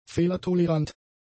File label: "fehlertolerant", german; adjectives